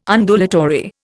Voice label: "Undulatory", Indian